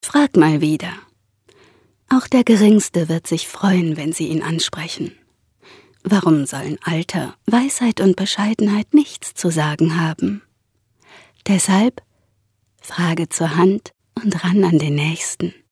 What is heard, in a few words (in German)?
Werbung, Sanft